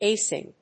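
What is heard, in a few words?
"a SYNC", English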